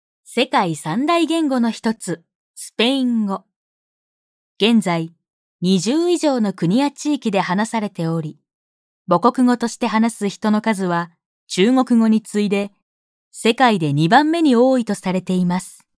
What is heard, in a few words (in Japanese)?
NA ナチュラル